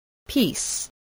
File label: "peace", English, voice